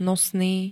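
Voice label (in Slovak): "nosný", Slovak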